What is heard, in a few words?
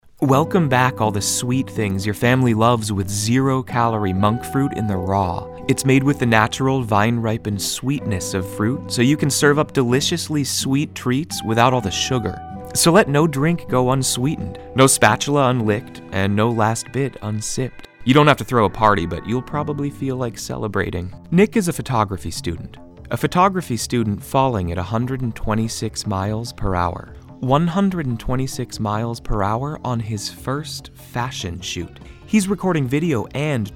Commercial VO